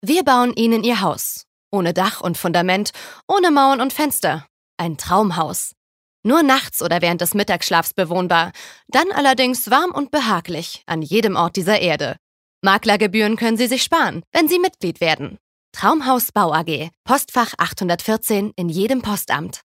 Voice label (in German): Werbung Cool